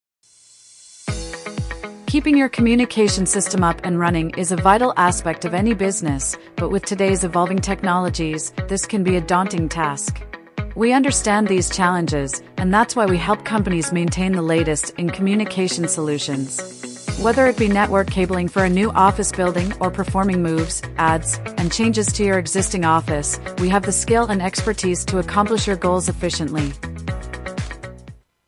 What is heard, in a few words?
English female; voice talent demos